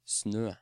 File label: "Snø", Norwegian